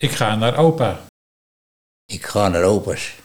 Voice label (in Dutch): Kga naar opaas, dialect Nieuw lekkerlands; ik ga naar opa